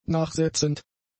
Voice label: "nachsetzend", German; adjectives

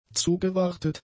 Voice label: "zugewartet", German; adjectives

